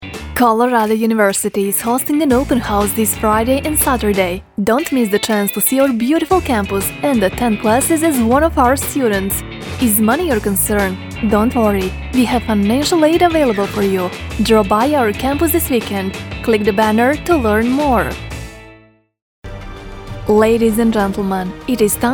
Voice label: English Promo Trailer